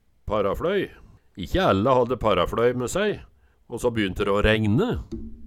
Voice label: "parafLøy", Numedalsmål

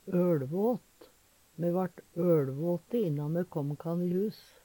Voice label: "øLvåt", Numedalsmål

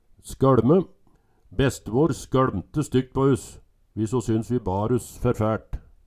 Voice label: "skøLme", Numedalsmål

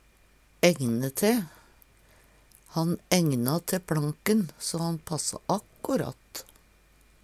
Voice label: "egne te", Numedalsmål

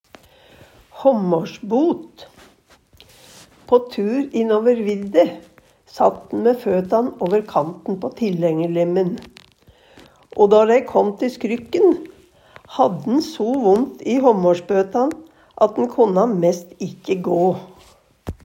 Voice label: hamarsbot, Numedalsmål

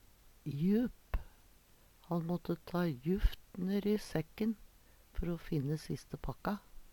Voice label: "jup", Numedalsmål

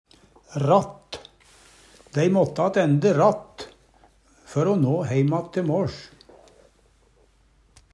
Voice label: "ratt", Numedalsmål; Dei måtte attende ratt før o nå heimatt te måLs (meddag).; Adverb

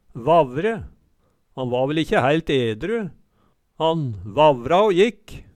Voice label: vavre, Numedalsmål; Han va vel ikkje hæilt edru, han vavra å jikk

